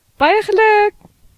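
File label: congratulation, Scottish Gaelic; gcompris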